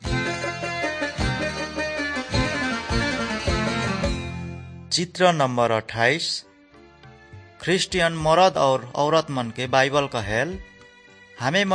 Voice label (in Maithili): good news maithili, nt drama